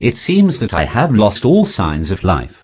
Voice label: posh headcrab dead; garrysmod